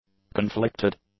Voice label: "conflicted", English; adjectives